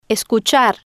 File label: "escuchar", Spanish